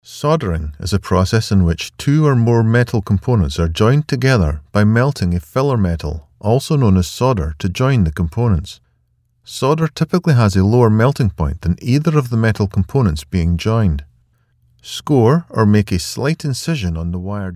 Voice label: eLearning